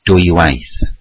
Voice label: "twice", Welsh